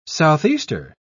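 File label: "southeaster", English, British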